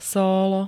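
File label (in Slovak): "sólo", Slovak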